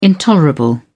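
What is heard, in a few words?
"intolerable", British English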